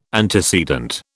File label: "antecedent", British